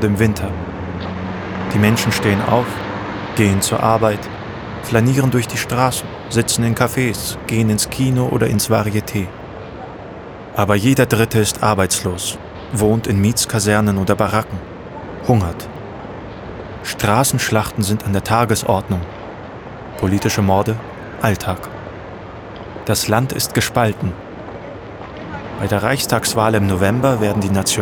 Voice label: Documentary Berlin 1932; LOFT VOICES